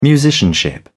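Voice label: "musicianship", British English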